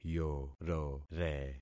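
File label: "yorore", concatenated syllables; Affixing experiment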